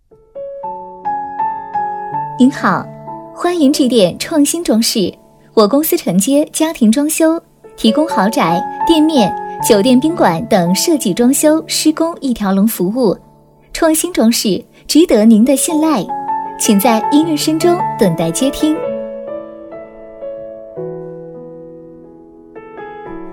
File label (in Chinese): 【彩铃】装饰女 甜美